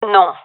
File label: negative, gendarmerie fem; vo; agents